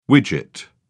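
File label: "widget", British English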